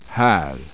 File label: swedish, vowels